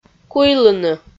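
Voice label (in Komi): "куйлыны", Komi-Zyrian, female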